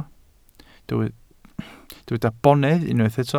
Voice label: "bonedd", welsh